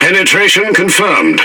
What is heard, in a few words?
Speech Hit Confirmation, EN GB; World Of Warships